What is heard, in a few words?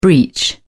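"breech", British English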